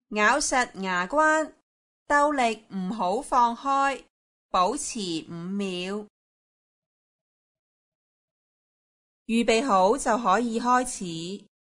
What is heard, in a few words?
instruction oromotor jaw; aphasia